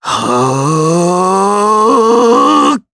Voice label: Casting, jp; Shakmeh; kingsraid; voices heroes

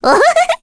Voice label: Happy; Requina; kingsraid

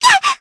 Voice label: Damage, jp; Hanus; kingsraid

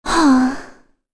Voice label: Think, kr; Kirze; kingsraid; voices heroes

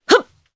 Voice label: Jump, jp; voices; heroes; Morrah; kings raid

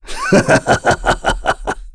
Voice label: Happy; kingsraid; voices heroes en

